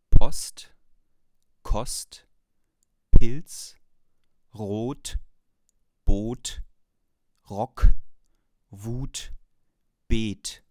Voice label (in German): ohne Popschutz, Rode NT-USB